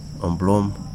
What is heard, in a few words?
"bone", Wahgi; lae unitech